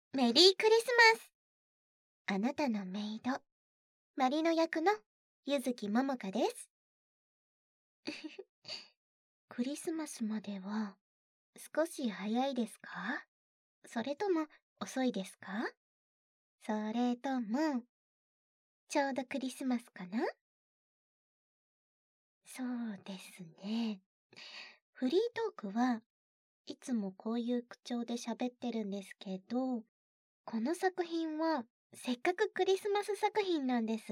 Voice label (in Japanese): フリートーク, ASMR